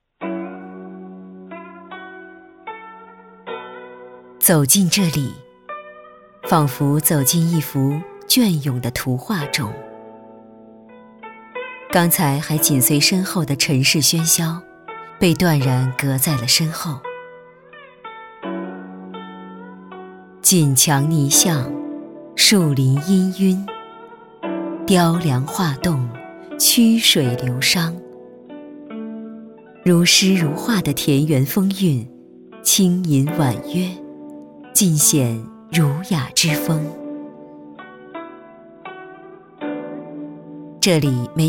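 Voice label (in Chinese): 国语 女声 宣传片 温柔 大气浑厚磁性 沉稳 娓娓道来 亲切甜美; 园林介绍; 旅游导览导游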